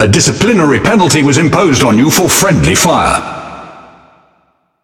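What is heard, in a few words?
Speech Friendly Fire Punishment, British English; World Of Warships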